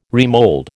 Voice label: "remold", American

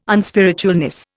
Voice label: "unspiritualness", Indian

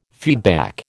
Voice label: "feedback", American

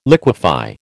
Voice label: "liquefy", American

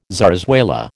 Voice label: "zarzuela", American

